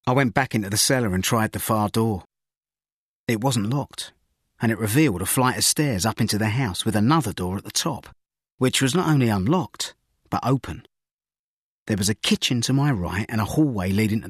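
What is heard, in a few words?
drama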